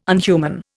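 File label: "unhuman", Indian English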